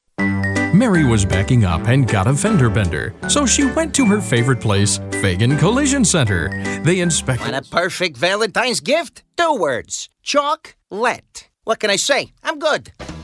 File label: Characters Comedy